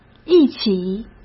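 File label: "一起", Mandarin Chinese; chinese flashcards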